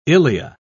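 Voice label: "ilea", English, British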